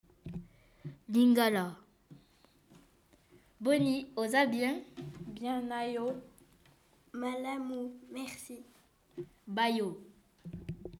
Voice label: Lingala